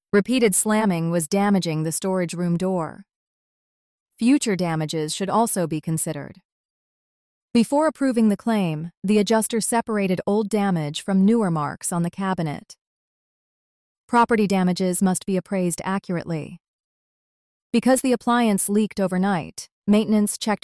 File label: dictation